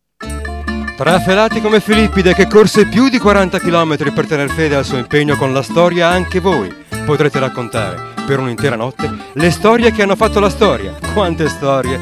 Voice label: spot